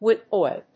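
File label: "wood oil", English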